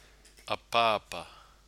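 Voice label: "apaapa", Maori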